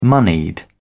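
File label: "moneyed", English, British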